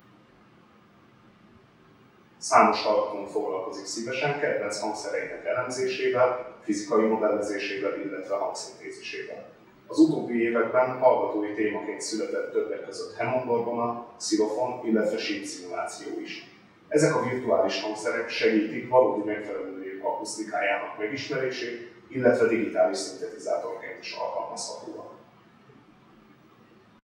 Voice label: noise Red de Reverb